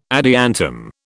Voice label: "adiantum", British

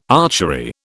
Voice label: "archery", British

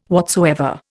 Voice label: "whatsoever", Indian

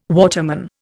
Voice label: "waterman", Indian

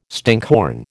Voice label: "stinkhorn", American

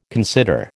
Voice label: "consider", American

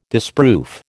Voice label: "disproof", American

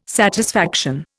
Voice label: "satisfaction", Indian

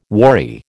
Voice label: "worry", American

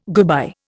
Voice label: "goodbye", Indian

